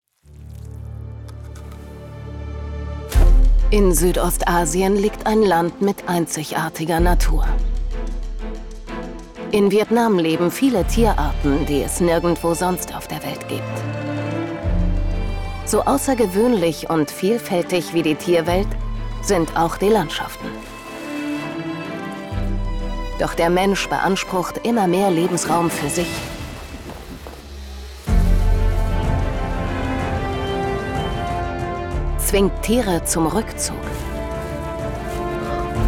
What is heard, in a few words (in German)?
Doku Kommentar; Wildes Vietnam Intro